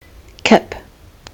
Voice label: "kip", kiwi english